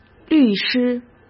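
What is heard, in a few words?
"律师" Mandarin Chinese; chinese-flashcards; hsk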